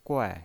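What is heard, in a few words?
"guai", Mandarin Chinese, fourth tone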